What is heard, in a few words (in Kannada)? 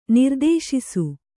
"ನಿರ್ದೇಶಿಸು", Kannada; nirdēśisu; direct on a course; conduct; lead; to name